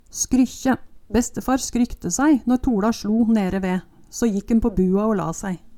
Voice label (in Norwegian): skrykkje, Numedalsmål